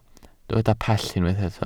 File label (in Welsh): "pell", Welsh